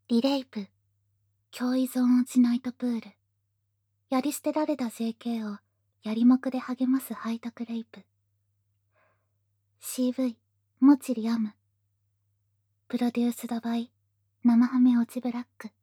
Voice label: タイトルコール, ASMR

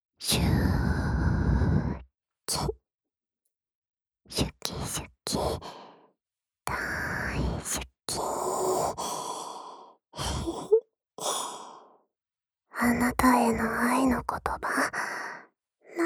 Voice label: 甘々好き好きボイス, ASMR

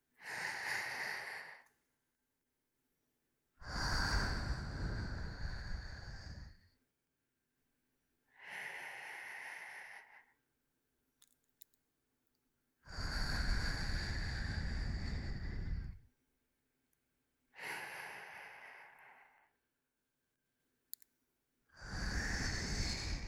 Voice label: 耳舐め 目覚まし 音声; アワビ通常版

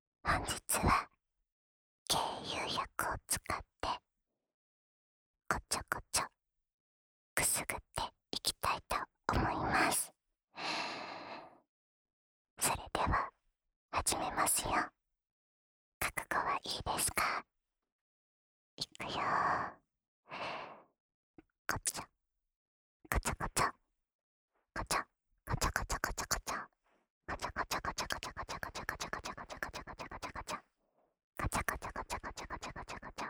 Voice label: こちょこちょボイス

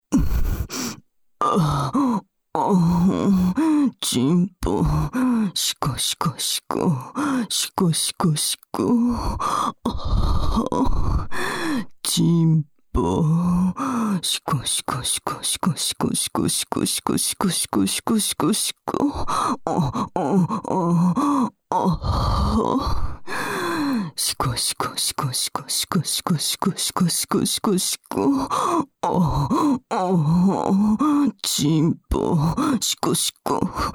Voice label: サブキャラ 右 淫語連呼 シコシコ射精, ASMR

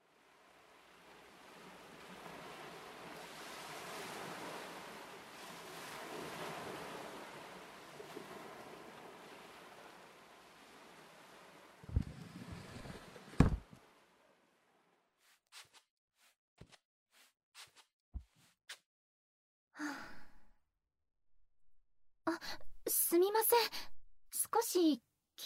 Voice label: 不穏な自己紹介, ASMR

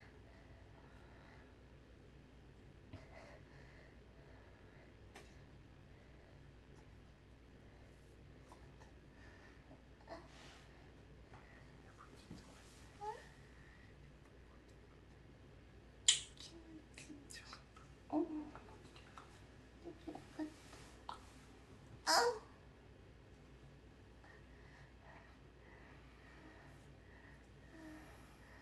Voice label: ピロートークからの, ASMR

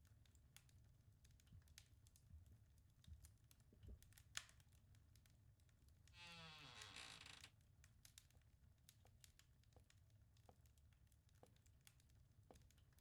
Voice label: しっとりキスと濃厚耳舐め手コキ, ASMR; マルガリータ

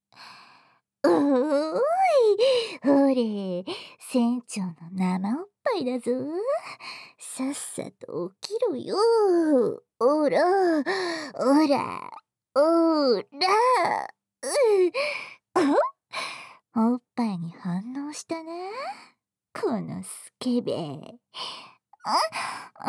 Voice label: ラブラブ目覚まし音声; ルビィ船長

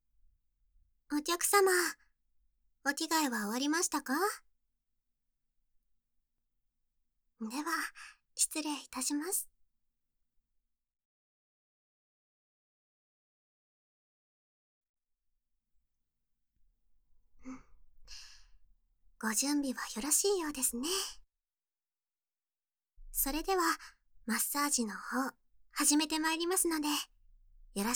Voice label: 気になる箇所をオイルマッサージしていきますねSEなし, ASMR

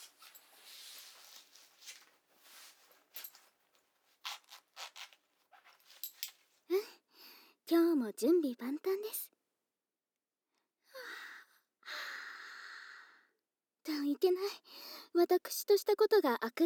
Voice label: メイドが、準備している独り言なシーンからスタートします。; 俺専属の汚物処理巨乳メイド

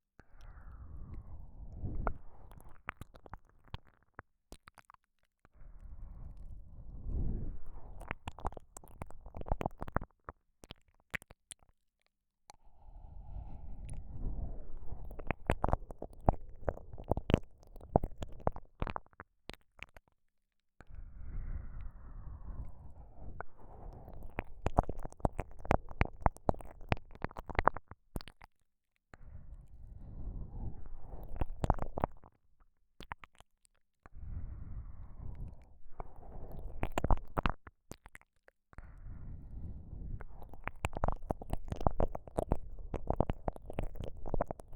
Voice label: KU100 左耳 10分に1回好き

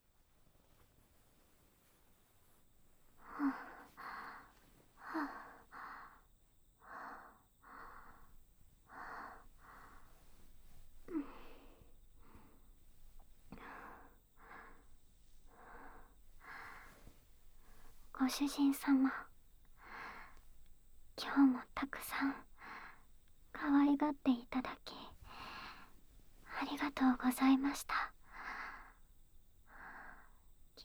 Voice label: 全肯定耳元囁き＆密着ベロチュー添い寝, ASMR

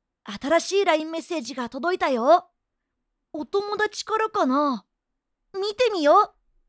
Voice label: LINE通知, かわいい

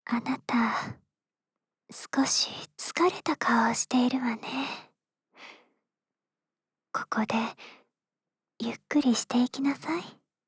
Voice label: 少し疲れた顔をしているわね, ささやきボイス; 憂妃の共依存癒やしささやきボイス

